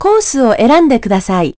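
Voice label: course, Japanese, announce voice; jpn; Nintendo; July 2020 Gigaleak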